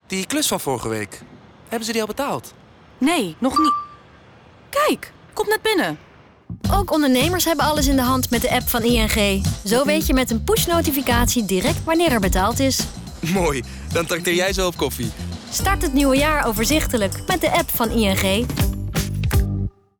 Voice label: dialoog; Betaling

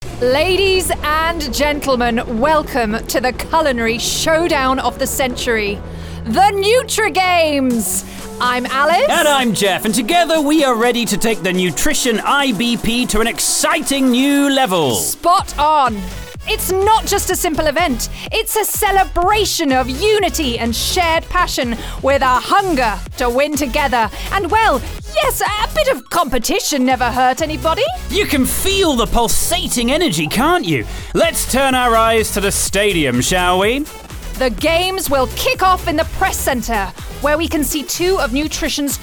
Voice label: sportcommentator; Unilever

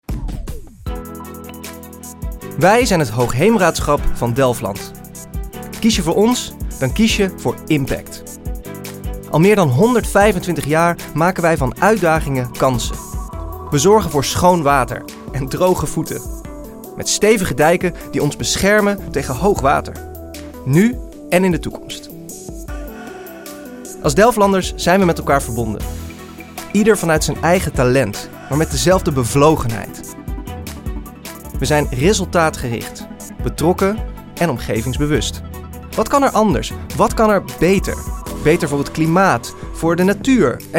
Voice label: AV commentaar; Employer Branding

